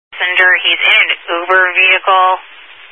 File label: Highway Patrol, Phoenix Metro East Area, scanner